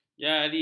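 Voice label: "jari", Malay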